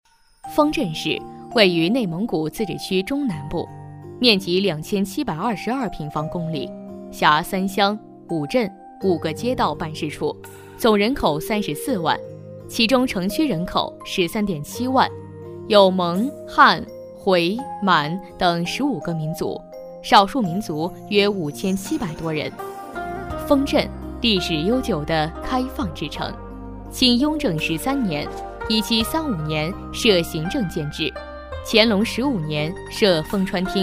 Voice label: 女 城市宣传 庄正; 年轻稳重; 女285-明亮柔和

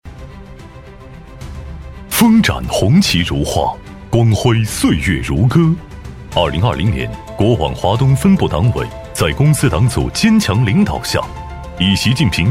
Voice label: 男 党建专题 党建宣传片 大气震撼; 男98-磁性品质; 大气浑厚

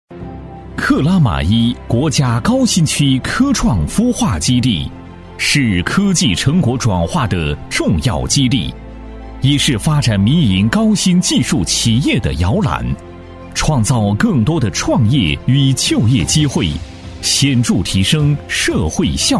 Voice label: 男, 宣传介绍片, 大气明亮; 科创孵化基地

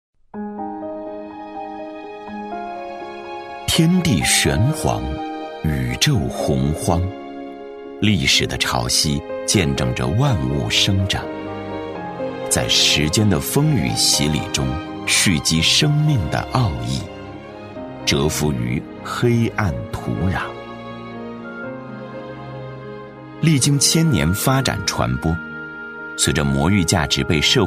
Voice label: 男 纪录片 醇厚讲解; 魔芋; 男83-纪录片《魔芋》-醇厚讲解

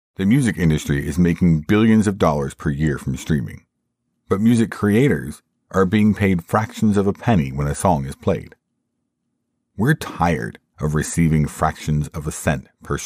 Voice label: 美式男声 纪录片宣传片

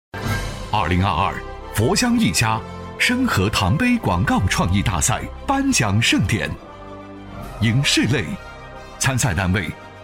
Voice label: 男-颁奖盛典-年轻激情; 颁奖盛典《生和堂》; 年会颁奖配音

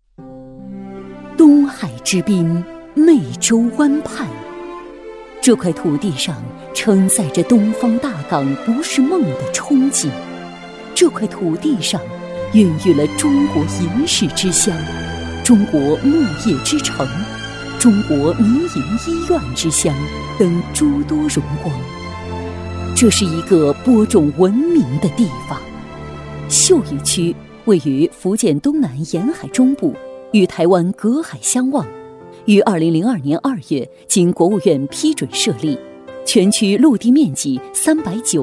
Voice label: 女 地区宣传 大气稳重; 舒缓抒情; 秀屿区

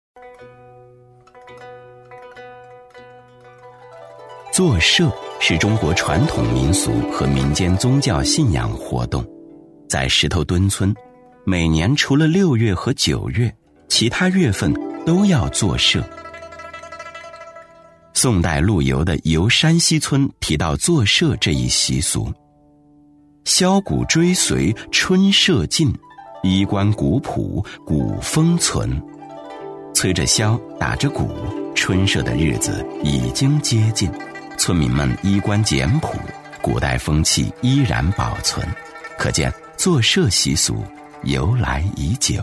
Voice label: 男 纪录片 抒情讲解

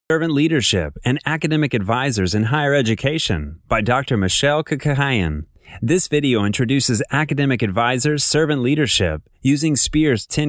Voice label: 美式男声 旁白

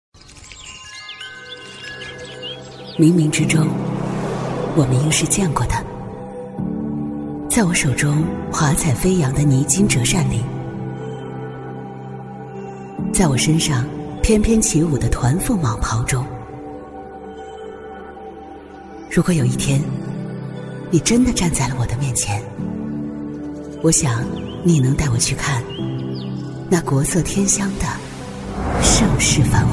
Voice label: 女-纪录片-空灵讲述; 央视网四时花开

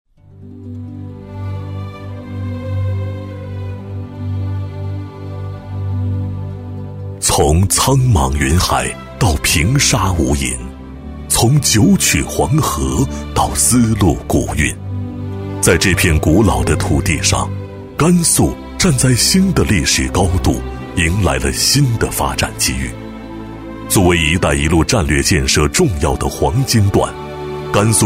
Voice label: 男, 企业宣传片, 大气深情; 男228-电视台声 沉稳大气; 甘肃

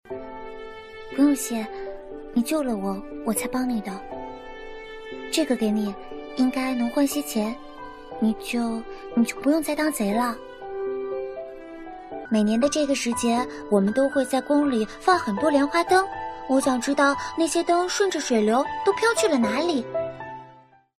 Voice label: 女 动画片 女童声; 【甜心公主】

